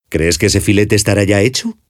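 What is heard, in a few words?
Spanish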